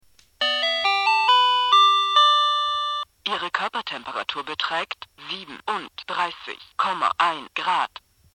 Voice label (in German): stimme, sprechende thermometer